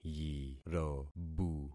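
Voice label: "yirobu", concatenated syllables; Affixing experiment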